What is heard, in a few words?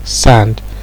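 "sand", Nigerian Pidgin, male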